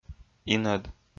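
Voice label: "инӧд", Komi, male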